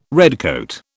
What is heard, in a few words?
"redcoat", British